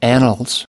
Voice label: "annals", us